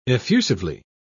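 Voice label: "effusively", British English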